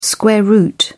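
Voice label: "square root", British English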